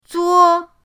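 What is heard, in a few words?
"zuo", Mandarin pinyin, first tone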